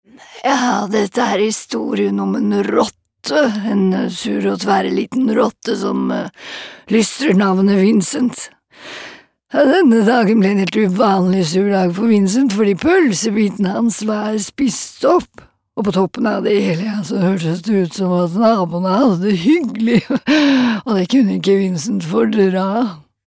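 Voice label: dub old woman, norwegian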